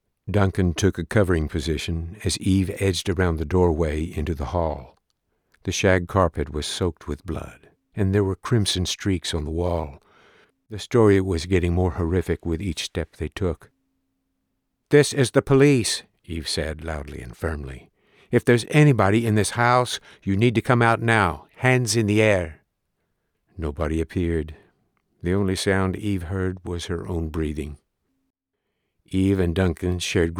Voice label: Third Person Detective Fiction, narration; Lost Hills